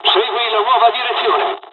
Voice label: mission fly, dialogs italian

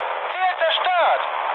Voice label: bomb success, mood med, speech ge; War Thunder

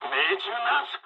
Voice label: bomb failure mood low, speech jp; War Thunder

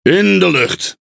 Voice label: Speech Pilots Torpedo Airborne, NL; World Of Warships

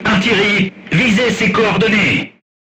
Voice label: voice message art command, crew tanks french

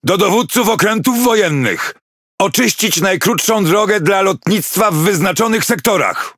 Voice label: SQUADRONS ARRIVED, Languages PL; PVE; World Of Warships

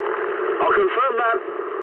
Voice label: eng player killed enemy mood med, speech eng; War Thunder

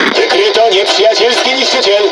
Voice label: Speech Detection Enemy Destroyer, PL; World Of Warships

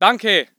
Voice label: voice ger infantry

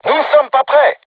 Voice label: voice message art reloading, crew tanks french

